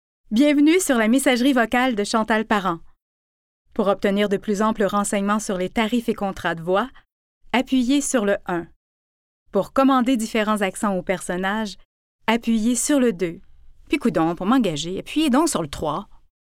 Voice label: Phone